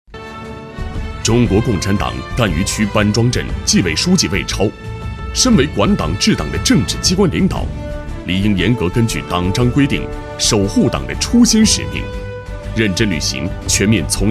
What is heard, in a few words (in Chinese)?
男 专题 反腐败; 连云港市赣榆区班